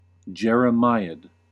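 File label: "jeremiad", American English; pronunciations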